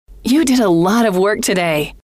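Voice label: you did a lot of work today, female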